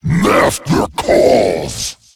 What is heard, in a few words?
Male Faceless Attack